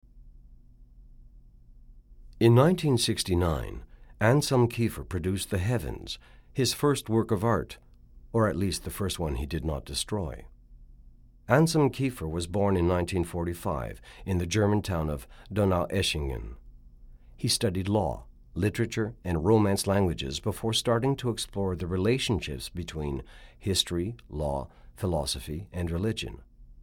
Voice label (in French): démo anglais